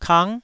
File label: "kang9", taiwanese syllable